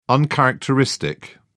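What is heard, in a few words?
"uncharacteristic", British English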